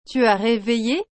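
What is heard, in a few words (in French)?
"Tu as réveillé", French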